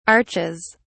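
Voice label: "arches", English, British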